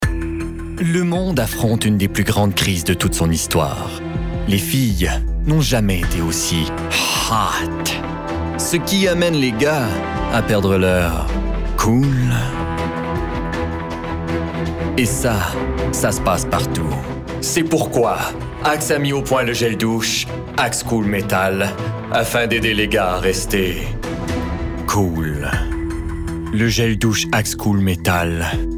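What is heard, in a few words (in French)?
fr normatif corpo affirme comedie explicatif adulte medium; axe cool metal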